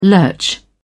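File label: "lurch", British English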